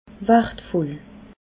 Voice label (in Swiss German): "wartvoll", alsace